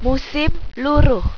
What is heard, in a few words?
fall, malaysian